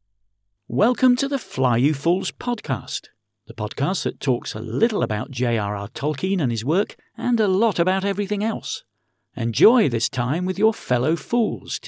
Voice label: Podcast